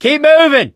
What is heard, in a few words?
in water, voice, mechanic, survivor; Left 4 Dead 2